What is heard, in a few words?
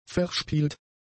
"verspielt", German; adjectives